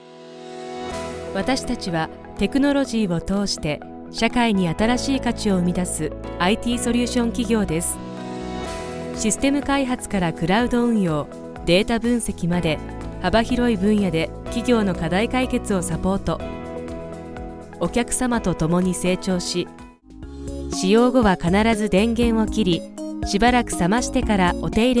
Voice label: japanese narration